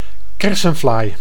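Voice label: "kersenvlaai", Dutch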